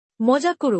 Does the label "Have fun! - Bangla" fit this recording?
Yes